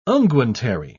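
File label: "unguentary", British English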